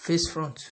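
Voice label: "face front", Nigerian Pidgin, male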